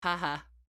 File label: Emote Positive